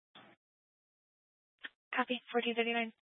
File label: Police Department Dispatch, scanner